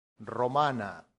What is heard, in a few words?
"romana", Latin; santillana